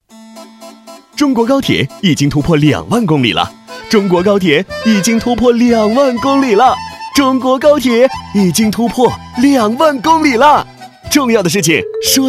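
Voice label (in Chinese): 男【MG飞碟】诙谐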